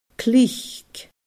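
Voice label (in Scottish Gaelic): "clìc", Scottish Gaelic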